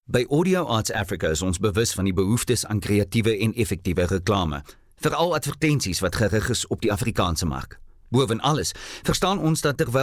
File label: Afrikaans Corporate